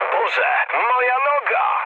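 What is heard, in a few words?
damaged pilot, mood low, Polish speech; War Thunder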